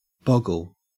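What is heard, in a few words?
"boggle", Australian English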